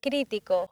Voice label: "critico", accent; laboratori fonetica; practiques accent